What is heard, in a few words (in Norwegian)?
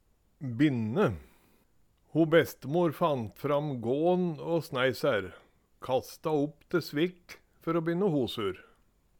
binne, Numedalsmål